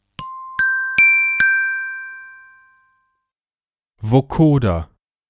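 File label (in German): "Vocoder", German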